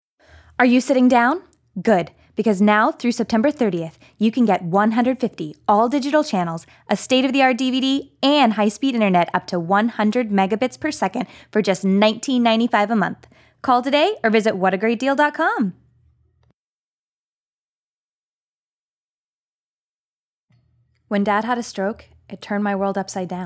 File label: announcer emotional local hawaii